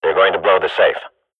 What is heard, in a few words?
radio bot bomb at safe, swat; Counter Strike Model Pack; CS:GO